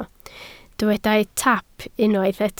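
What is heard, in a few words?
"taap" Welsh, spoken word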